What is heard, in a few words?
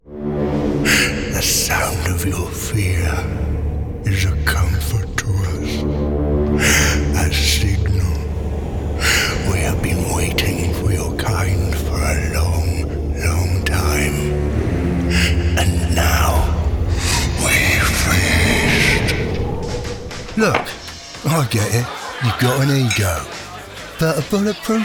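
Character and Commercial; Character and Commerical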